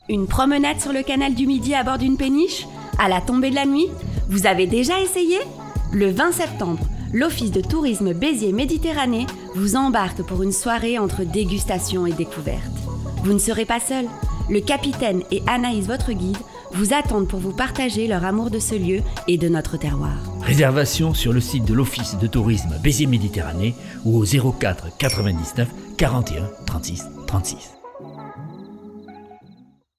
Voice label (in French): spot radio; Beziers